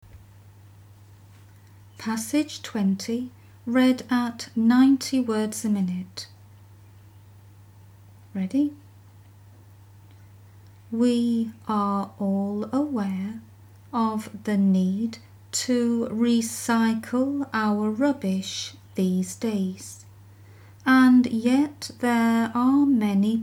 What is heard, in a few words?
90wpm; Teeline